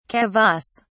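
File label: "kavass", British English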